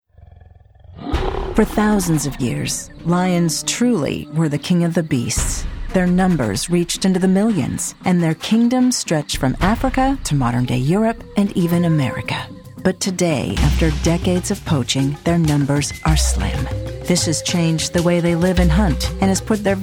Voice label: Voice Talent Narration